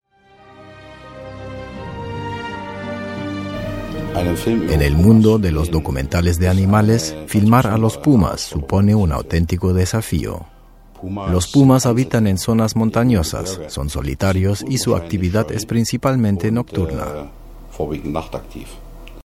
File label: spanish latin american documentaries; professioneller zweisprachiger Sprecher